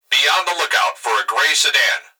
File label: Human Male Voice Police Dispatch; Emergency